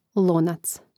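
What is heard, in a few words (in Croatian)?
"lonac", Croatian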